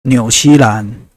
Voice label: new zealand; gcompris-data; geography